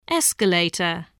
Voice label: "escalator", voice, english